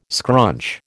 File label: "scrunch", American